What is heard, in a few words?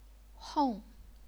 "hong4", chinese pinyin